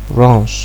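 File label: "runs", Nigerian Pidgin, male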